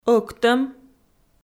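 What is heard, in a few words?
"ӧктӧм", Komi-Zyrian, female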